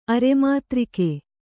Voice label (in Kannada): "ಅರೆಮಾತ್ರಿಕೆ", Kannada